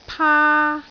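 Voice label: "paa1", Cantonese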